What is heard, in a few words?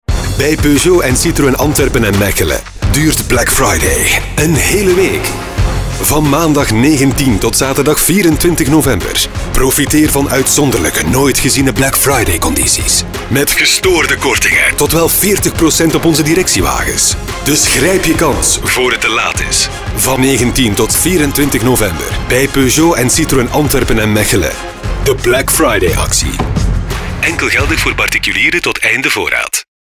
radio; citroen peugeot; studio sonart